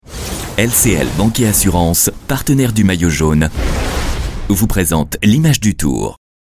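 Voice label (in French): sport droit, voix off parrainage, homme; LCL sport, droit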